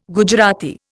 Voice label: "Gujarati", Indian

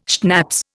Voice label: "schnapps", Indian

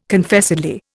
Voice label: "Confessedly", Indian

